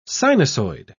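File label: "sinusoid", British English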